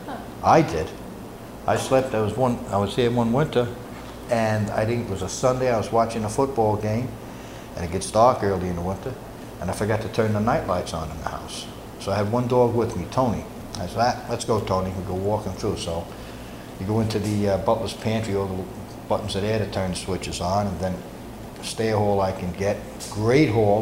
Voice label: Spooky Story; Yellow Room; a rough point halloween ghostly encounters